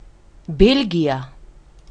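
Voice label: belgium, Spanish; gcompris-data; geography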